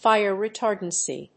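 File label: "fire retardancy", English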